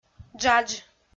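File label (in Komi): "джадж", Komi, female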